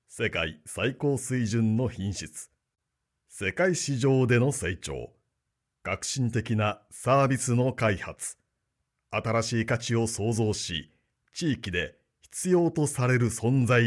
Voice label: male